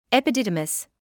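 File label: "epididymis", British English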